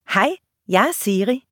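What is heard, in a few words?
Danish, Denmark; iOS Sounds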